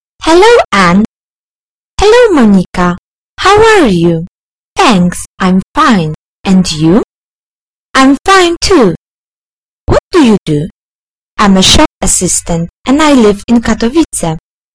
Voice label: dialog